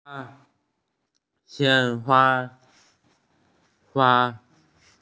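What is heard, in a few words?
"frog", Mandarin; qing1wa1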